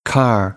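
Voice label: "car", French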